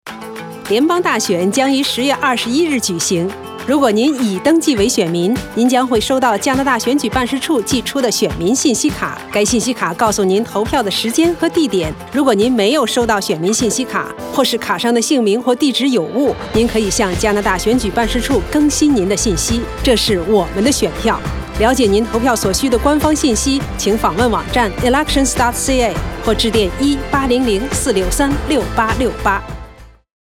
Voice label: Voter information Card mandarin